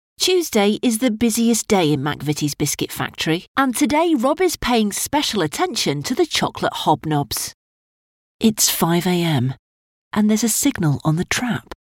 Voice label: documentary